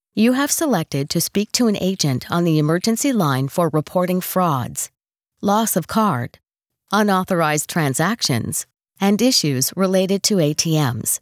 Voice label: Lost Stolen Card Message English